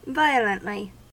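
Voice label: "violently", US English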